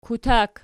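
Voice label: "kutak", nominative, Archi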